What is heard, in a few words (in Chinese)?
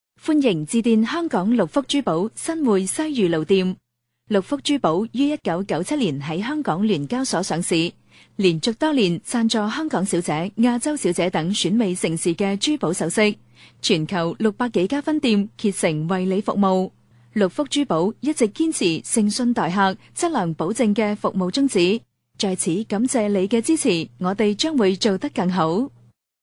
女 粤 彩铃; 知性; 粤语